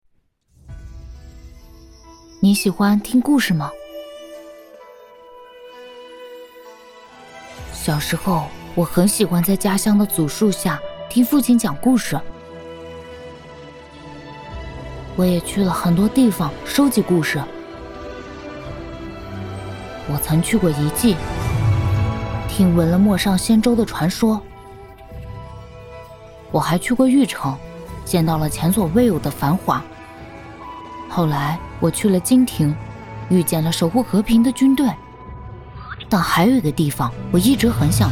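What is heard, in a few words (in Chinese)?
动画动漫 正太女声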